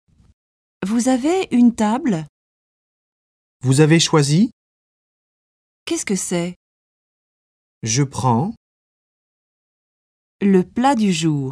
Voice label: French